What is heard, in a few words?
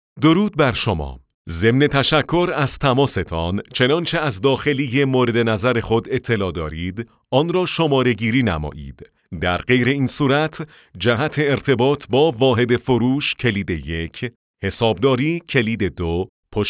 men